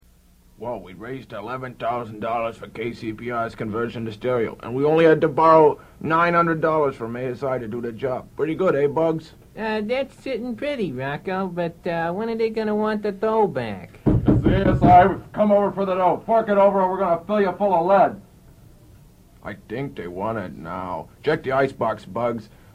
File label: promo auction